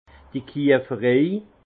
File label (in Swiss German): "kieferei", alsace; tonnellerie